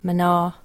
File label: "Mna", irish